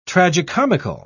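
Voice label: "tragicomical", British English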